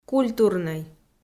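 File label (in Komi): "культурнӧй", Komi-Zyrian, female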